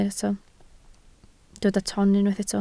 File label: "ton", Welsh